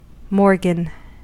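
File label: "morgan", US English; pronunciations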